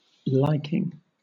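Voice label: "liking", British English; pronunciations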